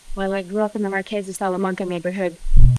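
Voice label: voice acting, es, female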